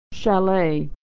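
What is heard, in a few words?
"chalet", American English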